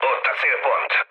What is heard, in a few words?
near waypoint, mood low, speech hun; War Thunder